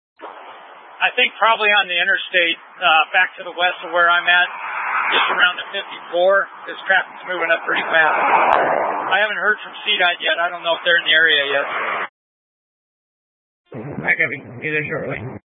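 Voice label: Incident Tac 1, Scanner audio recording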